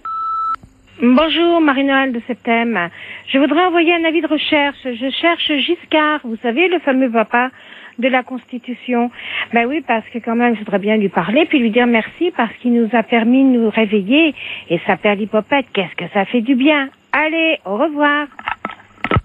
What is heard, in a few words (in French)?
repondeur; Victoire du Non